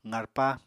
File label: "qarpa", Apatani